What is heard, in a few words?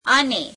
"and", gujarati; અને; ane